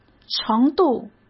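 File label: "程度", Mandarin Chinese; hsk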